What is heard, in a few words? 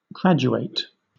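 "graduate", British English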